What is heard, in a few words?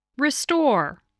"restore", English